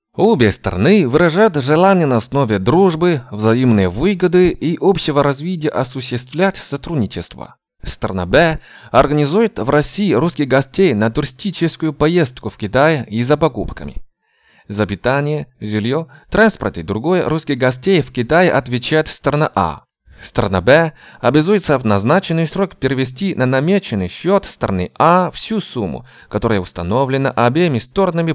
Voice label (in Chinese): 俄语